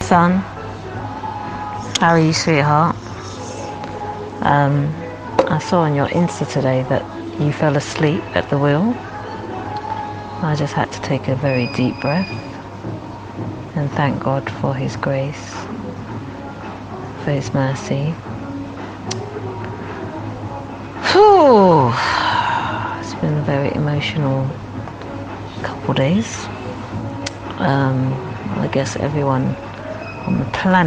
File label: Voicenote